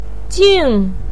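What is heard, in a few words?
"jing", Mandarin pinyin, fourth tone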